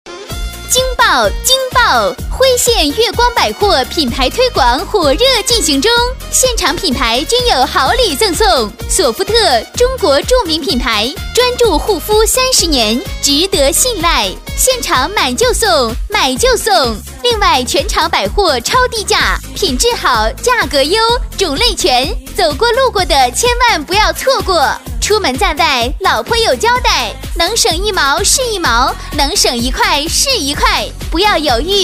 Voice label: 女 年轻甜美, 广告促销 [促销]; 索芙特; 国语女声配音

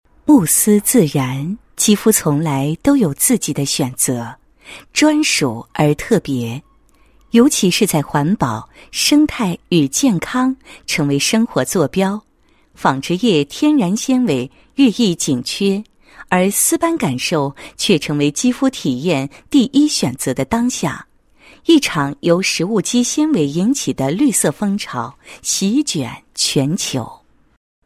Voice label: 女 品牌广告; 沐丝品牌宣传广告

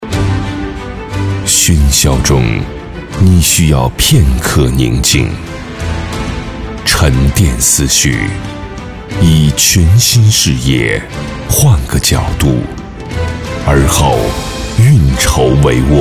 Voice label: 男 广告; 奥迪Ａ6

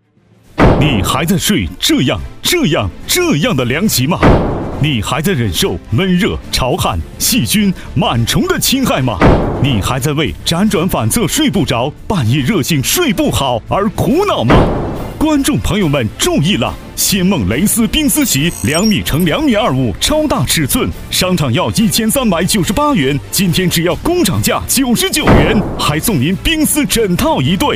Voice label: 促销广告; 仙梦冰丝席

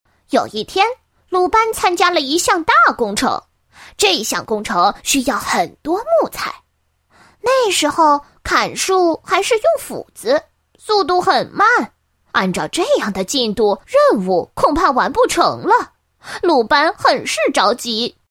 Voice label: 女 男童 讲故事; 鲁班

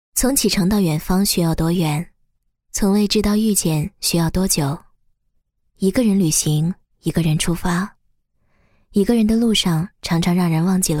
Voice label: 国语女声配音 独白 野性 不羁; 旅游 世界; 从启程到远方需要多远

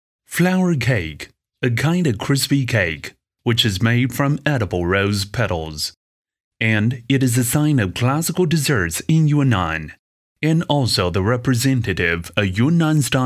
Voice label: 英男, 英文, 宣传片

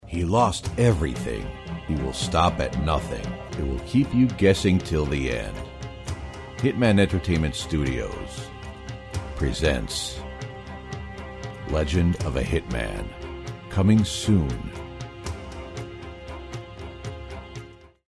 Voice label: 外籍英男 节目广告 低沉

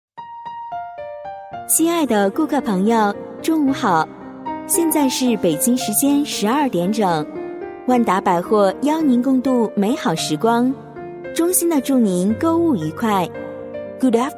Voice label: 女72 解说 百货商场广播 中英文温柔, 国语女声配音 年轻; 万达百货商场广播

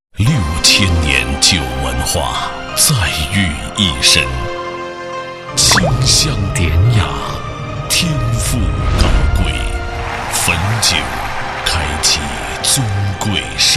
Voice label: 男 【广告】白酒 （浑厚大气）; 窖藏汾酒; 央视大牌

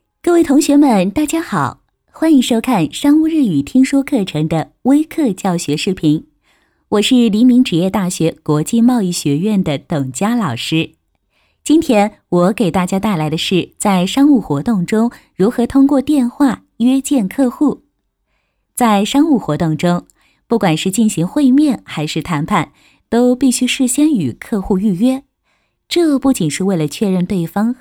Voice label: 女 旁白; 董佳约见客户

